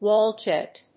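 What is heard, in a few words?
"wall jet", English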